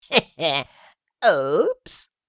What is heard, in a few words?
Oops little fantasy creature; fantasy friends